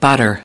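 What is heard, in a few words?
"butter", English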